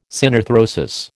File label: "synarthrosis", American